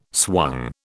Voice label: "swung", British